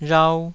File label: "rhau2", taiwanese